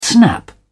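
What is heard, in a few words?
"snap back", British English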